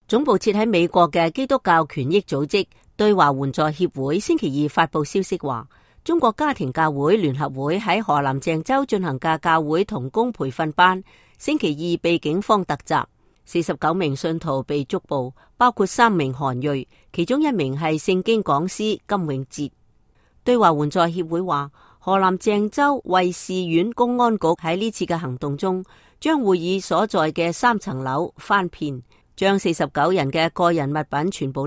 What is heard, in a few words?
cantonese